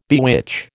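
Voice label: "bewitch", American English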